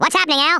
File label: "what the", US Sports, speech